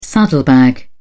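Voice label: "saddlebag", English, British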